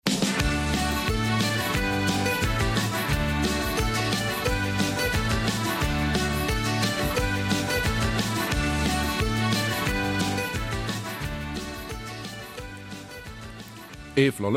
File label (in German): Eifeler Mundart; Gemeinde Bütgenbach und der Sparhaushalt 2014